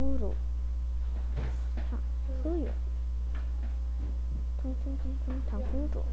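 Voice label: "hold", spoken word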